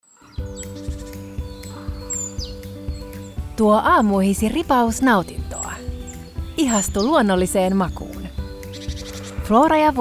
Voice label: female Finnish commercial compilation